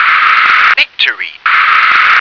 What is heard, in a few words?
"nectarine", English